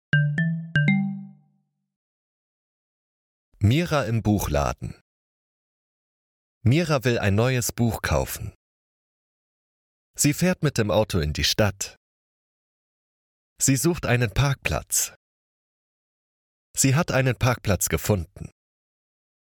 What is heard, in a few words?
German Easy Reader